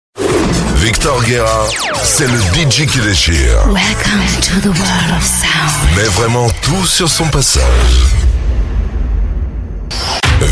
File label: French JINGLES RADIO